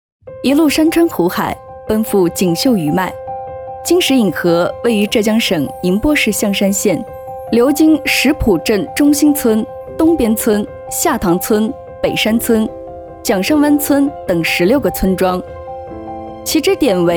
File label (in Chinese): 女 纪录片; 幸福河湖